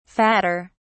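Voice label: "fatter", British English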